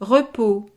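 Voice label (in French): "repos", French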